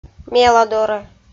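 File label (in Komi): "меладорӧ", Komi-Zyrian, female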